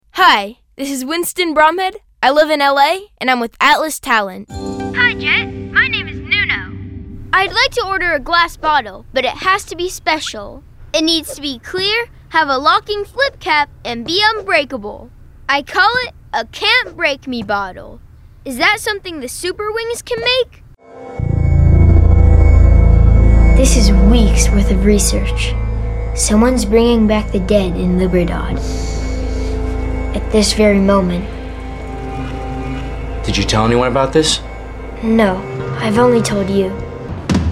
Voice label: animation women

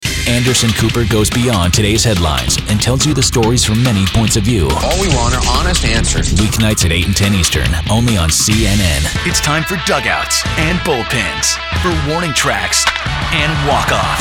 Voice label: promo, men

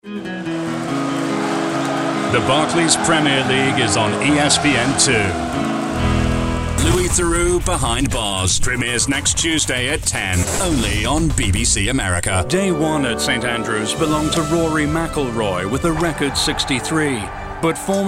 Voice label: promo, men

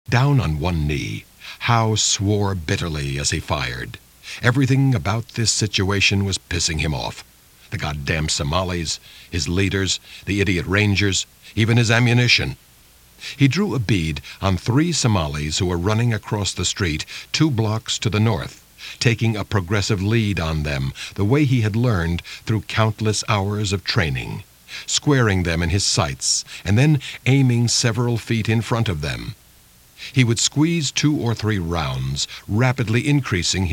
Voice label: audio book

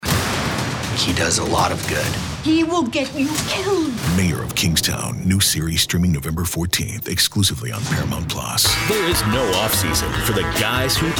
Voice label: promo men

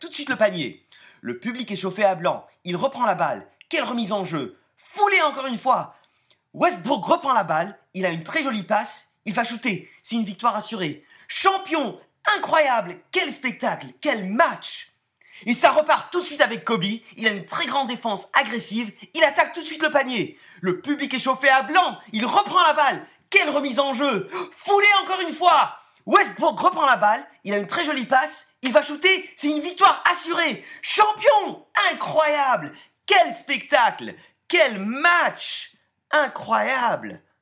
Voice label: languages french

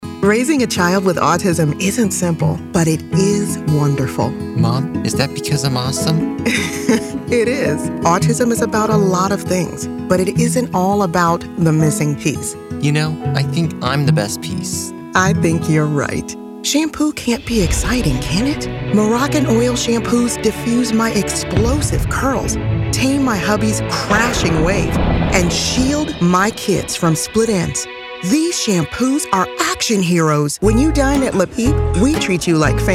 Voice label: commercial women

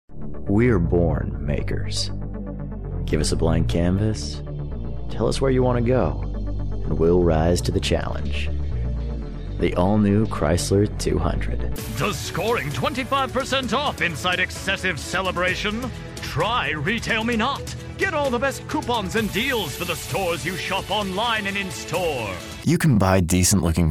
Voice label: commercial, men

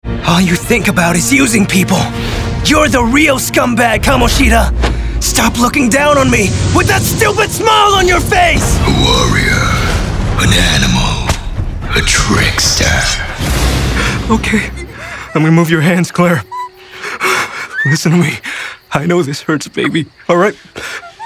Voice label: animation men interactive

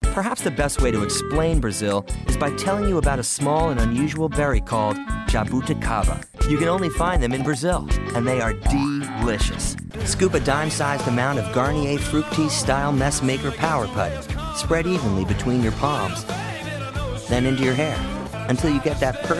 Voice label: narration men

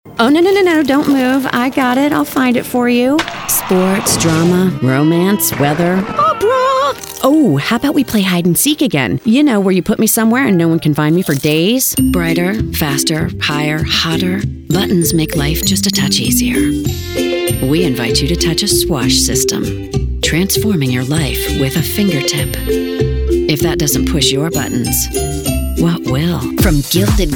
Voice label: commercial female

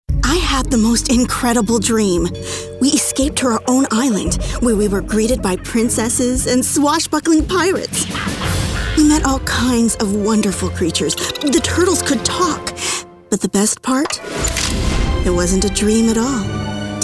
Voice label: commercial women

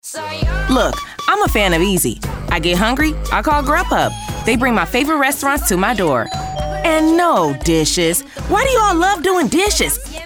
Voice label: commercial women

